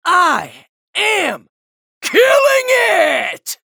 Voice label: chatter positive; anarki; frag